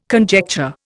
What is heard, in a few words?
"conjecture", Indian